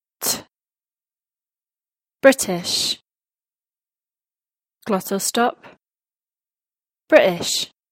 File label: British Glottal Stop